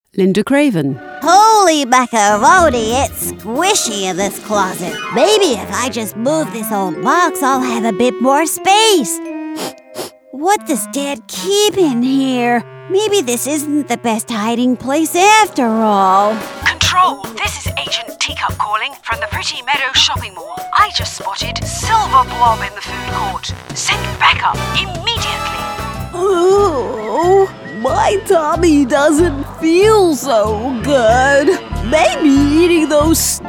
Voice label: Animation